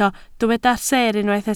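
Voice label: "seer" Welsh, spoken word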